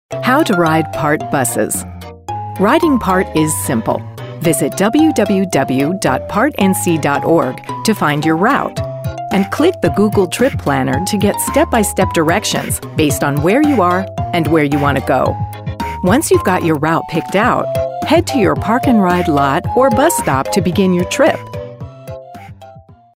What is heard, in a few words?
transportation explainer VO